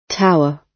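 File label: "tower", British English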